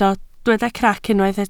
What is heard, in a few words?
"crac", Welsh